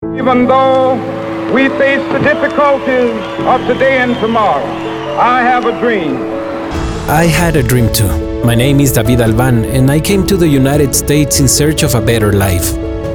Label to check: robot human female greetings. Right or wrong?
Wrong